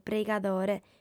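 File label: "preigadore", Sardinian, female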